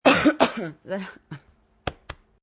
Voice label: Women, Pot head hippie; Talking; Voice